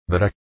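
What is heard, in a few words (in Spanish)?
"dra", Spanish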